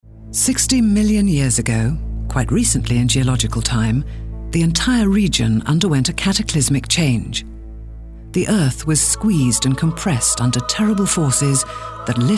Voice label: Narr